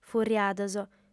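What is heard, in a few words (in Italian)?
"furriados", lingua sarda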